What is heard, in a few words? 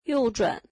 Turn right, mandarin